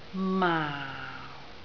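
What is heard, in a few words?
"maau4", Cantonese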